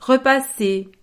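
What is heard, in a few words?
"repasser", French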